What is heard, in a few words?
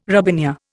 "robinia", Indian